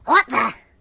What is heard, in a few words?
"What the", Pirate; hedgewars